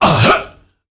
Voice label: "UHHUH", World Voices, Afro noise; ILIO; Vocal Planet VOL-5